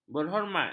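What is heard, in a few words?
"berhormat", Malay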